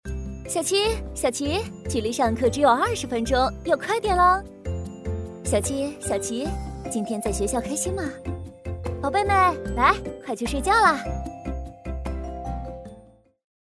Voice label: 女 角色 开朗妈妈

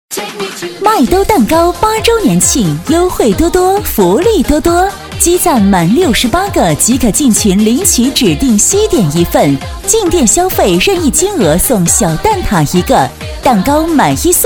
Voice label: 女, 促销广告, 蛋糕8周年, 激情活力

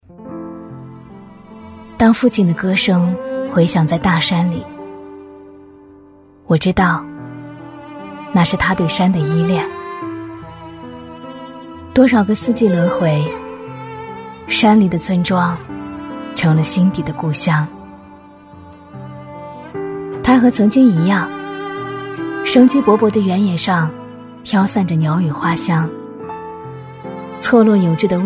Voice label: 女, 专题; 乡村振兴; 大气质感; 沉稳大气

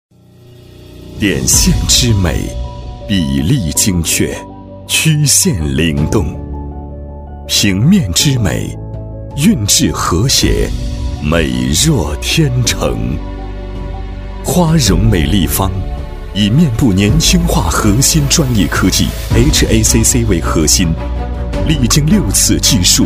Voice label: 男, 美容宣传片, 磁性品质; 厚重磁性; 大气浑厚; 花容美立方

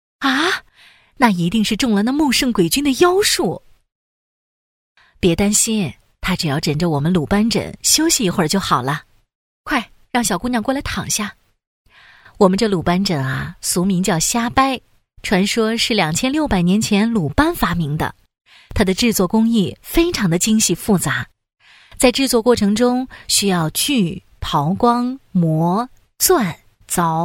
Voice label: 女, 角色, 阿姨

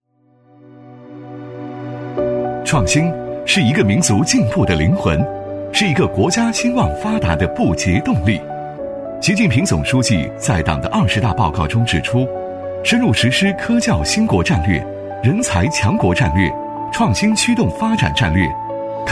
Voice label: 男, 活动宣传片, 大学生创新创业大赛, 年轻朝气

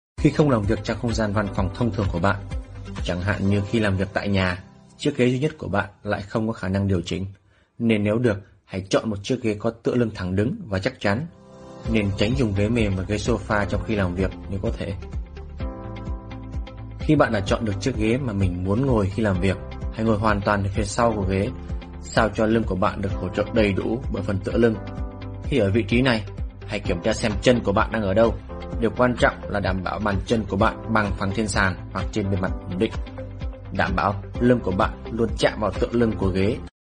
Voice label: 越南语男声

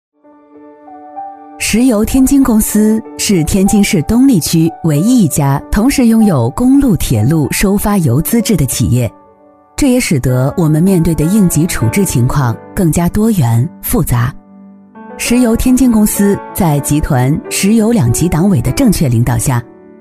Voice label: 女 宣传片 大气宣传; 沉稳大气; 女42-全能型; 中国石油

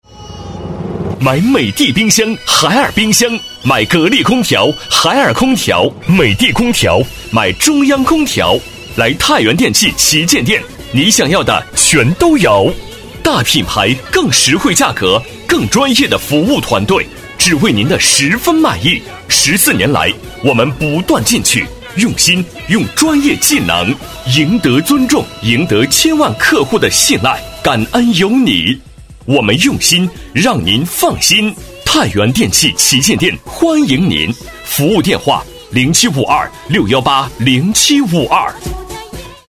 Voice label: 男 激情欢快; 年轻激情; 大气浑厚; 泰源电器旗舰店

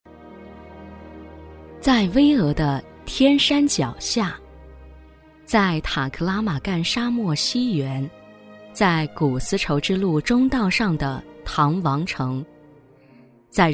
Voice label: 女, 党政专题, 年轻稳重; 年轻知性; 成熟知性

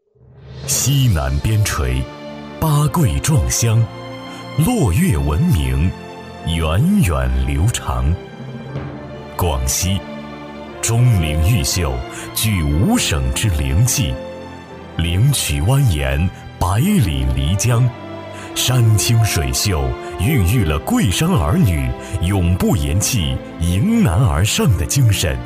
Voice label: 男 城市宣传 大气抒情; 广西; 大气沉稳

